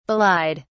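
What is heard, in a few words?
"belied", British English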